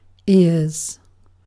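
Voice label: "ears", British English; pronunciation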